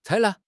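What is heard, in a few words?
"采纳", Chinese; HSK6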